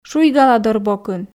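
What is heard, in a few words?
"шуйгаладорбокын", Komi, female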